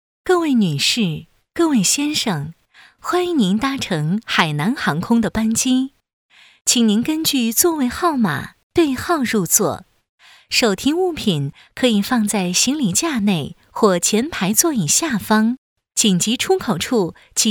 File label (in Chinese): 广播, 航空安全须知; 海南航空